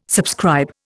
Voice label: "subscribe", Indian